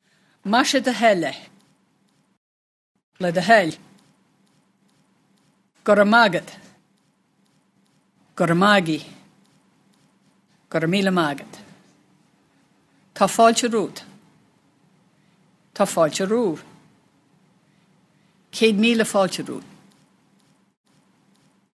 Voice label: Irish, Expressions